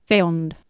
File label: "feond", Anglo Saxon